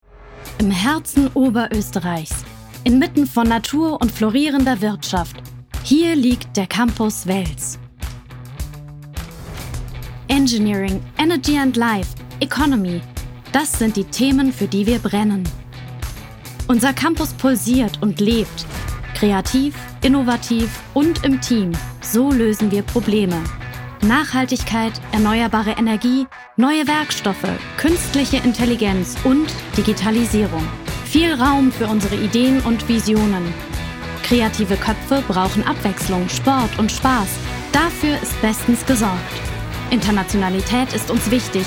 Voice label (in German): Online Spot deutsch; Campusvideo Wels